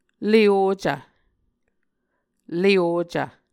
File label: "le ocv", Muscogee